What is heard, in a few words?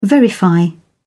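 "verify", British English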